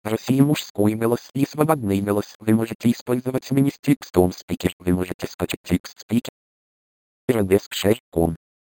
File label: russian male